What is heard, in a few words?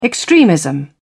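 "extremism", British English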